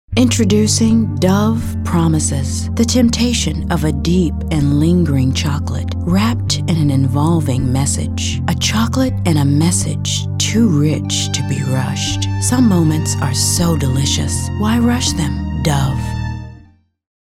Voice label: COMMERCIAL; Dove Chocolate